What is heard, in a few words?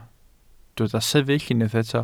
"sefyll", welsh